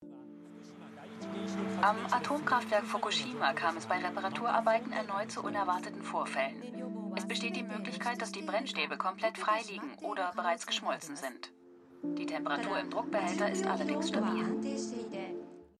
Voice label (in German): nachrichtenstimme; kinder des tsunami